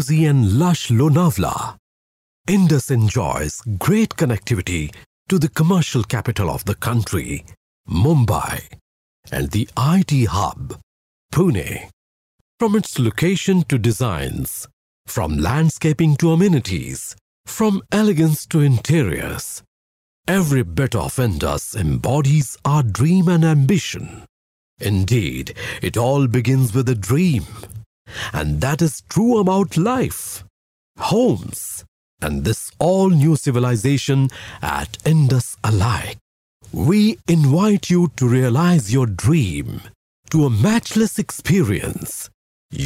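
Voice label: English Indian Male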